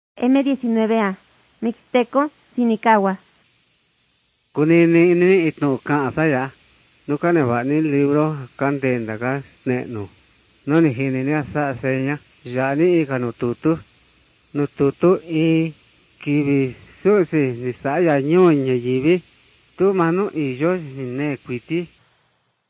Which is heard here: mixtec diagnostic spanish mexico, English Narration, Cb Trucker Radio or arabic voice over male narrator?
mixtec diagnostic spanish mexico